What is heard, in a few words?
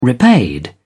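"repaid", English, British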